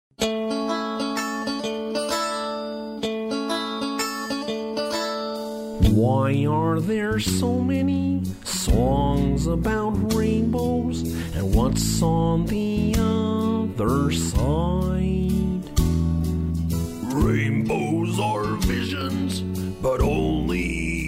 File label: character; USA